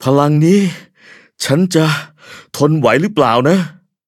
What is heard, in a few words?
level up, th; cookie run